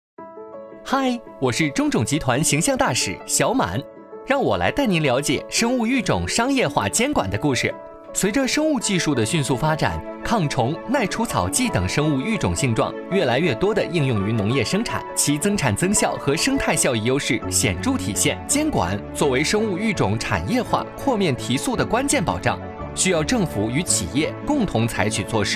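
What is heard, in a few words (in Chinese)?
男国大音-客观-商业化监管动画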